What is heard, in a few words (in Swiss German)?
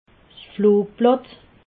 "flugblatt", alsace